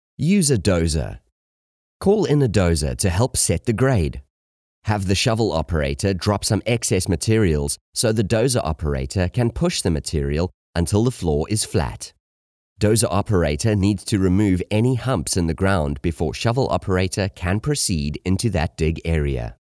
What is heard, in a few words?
English South African e-learning